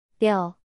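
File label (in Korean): "려", Korean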